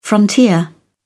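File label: "frontier", British English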